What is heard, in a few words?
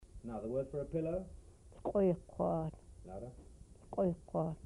"pillow", Twana